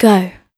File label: Vocal "Go", exclamation